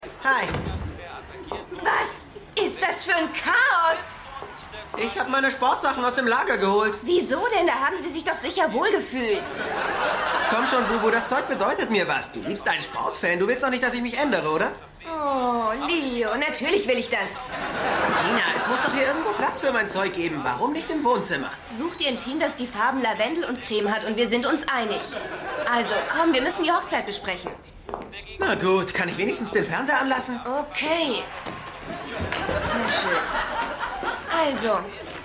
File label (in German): Sportkommentator; Stimmproben